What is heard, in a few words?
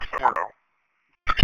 Police Sheriff Dispatch